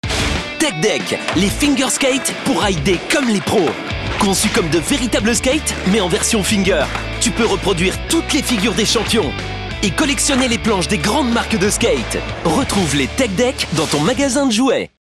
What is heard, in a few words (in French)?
spot tv jouet; tech deck